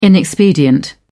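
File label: "inexpedient", British English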